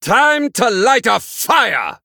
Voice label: Male Blood Elf